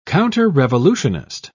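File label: "counterrevolutionist", British English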